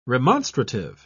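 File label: "remonstrative", English, British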